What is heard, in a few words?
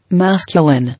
"Masculine", English